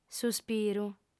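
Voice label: "suspiru", female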